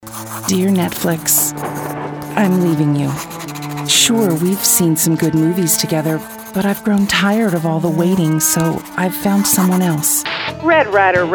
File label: Commercial